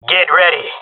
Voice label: round start, male; jungle; Counter Strike Model Pack; CS:GO